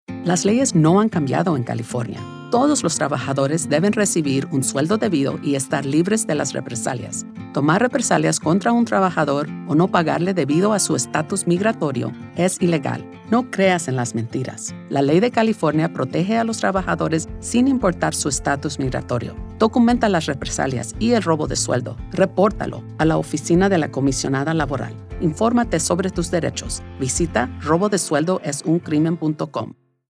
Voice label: workplace retaliation is illegal, spanish; Workplace Retaliation Is Illegal (:30)